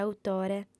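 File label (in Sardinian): "autore", female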